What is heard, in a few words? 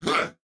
Attack, Corpus Venus Male